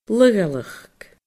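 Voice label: "laghaileachd", Scottish Gaelic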